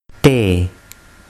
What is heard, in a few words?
"Te", urdu